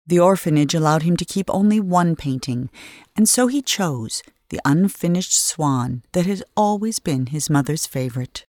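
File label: Voice Narration Garden Opener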